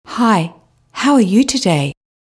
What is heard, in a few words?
adult speech